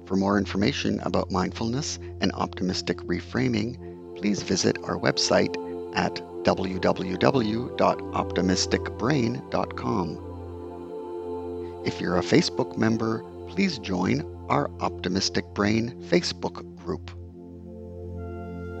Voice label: Sleep Releasing Negative Beliefs Meditation with Music